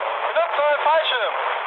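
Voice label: German bailout mood med, speech; War Thunder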